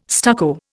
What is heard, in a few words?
"stucco", Indian